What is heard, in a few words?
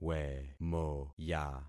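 "wemoya", concatenated syllables; Affixing experiment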